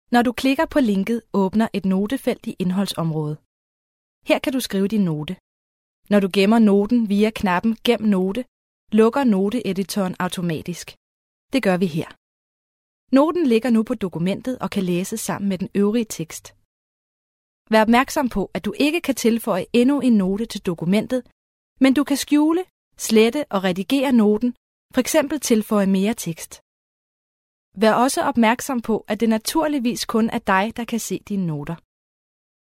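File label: danish female narration, voice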